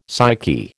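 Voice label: "psyche", American English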